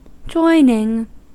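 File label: "joining", American English; pronunciations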